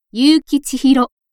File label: free talk